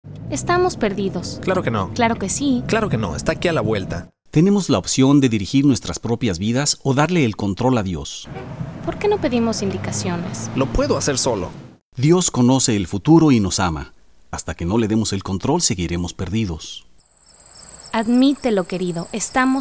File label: Spanish